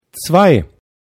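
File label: "zwei", German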